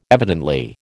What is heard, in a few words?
"evidently", American